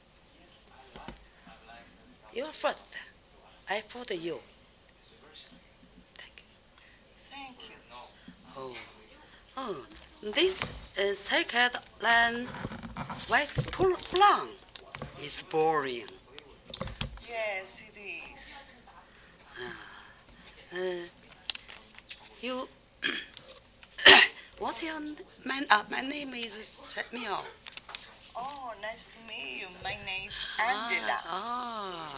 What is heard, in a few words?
casual conversation